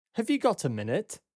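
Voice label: "Have you got a minute", British English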